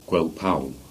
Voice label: "Gwealpaul", Cornish; place names